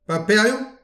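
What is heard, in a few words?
"paperiou", Breton; petit precis de prononciation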